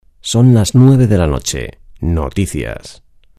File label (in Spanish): "nueve", locuciones para emisoras de radio; Presentación de las noticias de las nueve de la mañana.